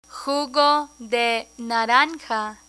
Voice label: "jugo de naranja", Spanish

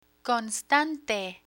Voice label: "constante", Spanish; emergency phrases; learn spanish

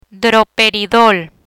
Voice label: "Droperidol", Spanish

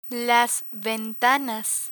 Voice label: "ventanas", Spanish

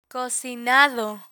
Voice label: "cocinado", Spanish

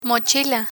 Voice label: "mochila" Spanish

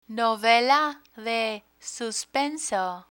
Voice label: "novela de suspenso", Spanish; spanish for kids

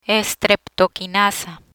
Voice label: "Estreptoquinasa", Spanish

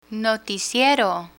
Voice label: "noticiero", Spanish

